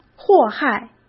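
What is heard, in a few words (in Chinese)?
"祸害", Mandarin; chinese-flashcards; hsk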